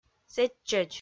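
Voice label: "сэтчӧдз", Komi, female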